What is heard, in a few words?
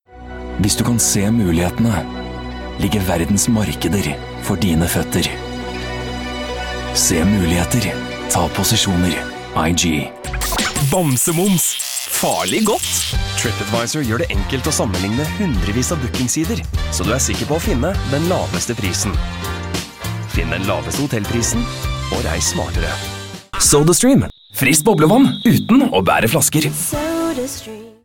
Norwegian, Scandinavian, Male, Home Studio, 20s-40s, voiceover